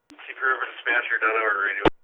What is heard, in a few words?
Radio